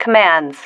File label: "commands", female